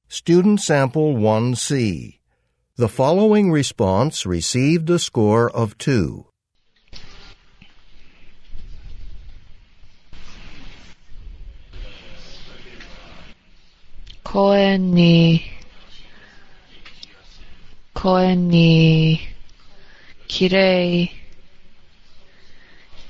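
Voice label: japanese conversation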